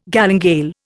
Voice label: "galingale", Indian